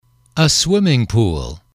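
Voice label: "swimming pool", English, male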